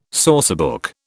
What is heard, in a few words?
"sourcebook", British